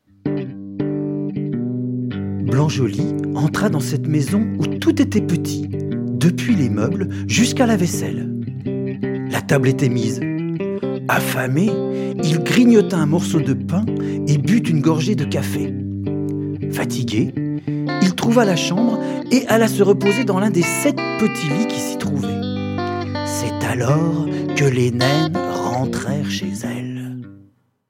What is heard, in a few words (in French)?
chanson blanc joli narration